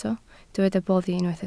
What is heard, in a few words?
"boddi", Welsh